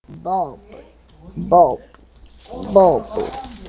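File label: "bulb", spoken word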